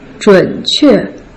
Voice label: "zhun3 que4", Putonghua